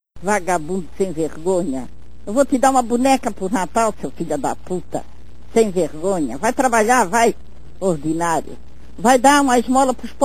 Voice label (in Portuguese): velha indignada